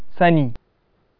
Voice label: "knowledge", Hausa